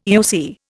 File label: "EOC", Indian